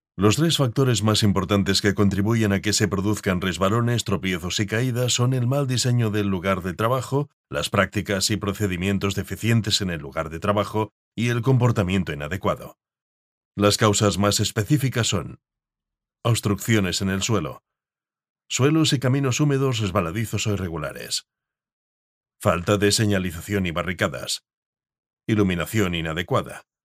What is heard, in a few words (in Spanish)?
locutor spanish voiceover elearning